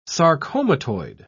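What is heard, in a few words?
"sarcomatoid", British English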